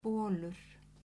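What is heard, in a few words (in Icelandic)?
"bolur", Icelandic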